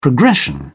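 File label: "progression", British English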